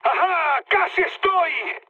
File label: near waypoint, mood high, speech; War Thunder